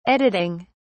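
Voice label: "editing", British English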